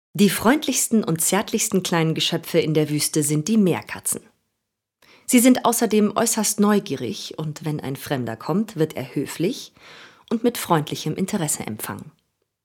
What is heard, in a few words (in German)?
Doku